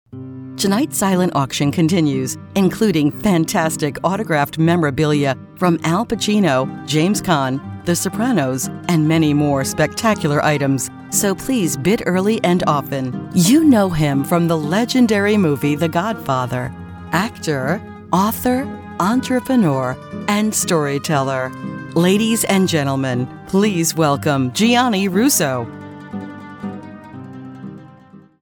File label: Introduction Announcement